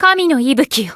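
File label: Voice heal, Japanese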